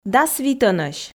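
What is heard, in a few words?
"дас витӧнӧсь", Komi, female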